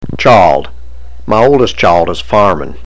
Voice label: "challed", baltimorese